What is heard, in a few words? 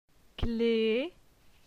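"Cle", French; phonetique; la syllabe e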